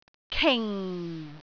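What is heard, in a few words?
"king4", Cantonese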